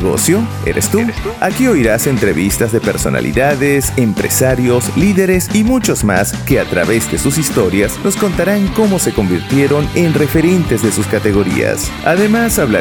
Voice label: Intro Podcast Spanish Voiceover